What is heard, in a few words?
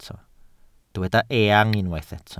"eang", welsh